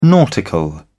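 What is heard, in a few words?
"nautical", British English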